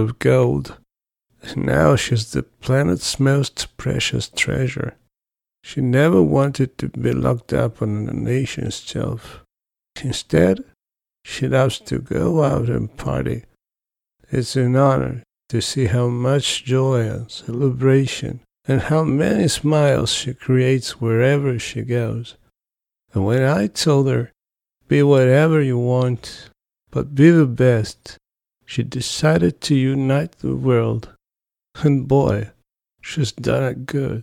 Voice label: English Old Voice With Fake Italian Accent On English; World Cup